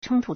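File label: "chōngtū", Chinese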